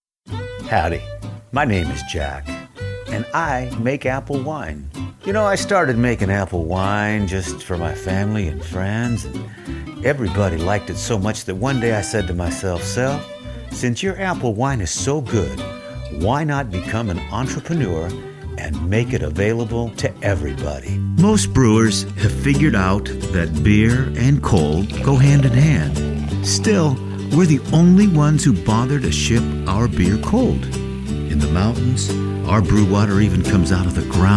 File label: Commercial, Male voices